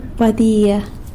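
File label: "badia" roadrunner, San Lucas Quiaviní Zapotec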